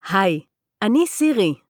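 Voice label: Hebrew, Israel; iOS 26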